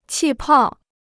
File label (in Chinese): "气泡", Chinese